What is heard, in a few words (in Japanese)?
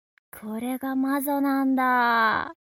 mob 女子 台詞